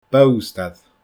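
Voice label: "bobhstair", Scottish Gaelic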